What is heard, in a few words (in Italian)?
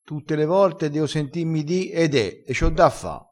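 "e de", vocabolario dialettale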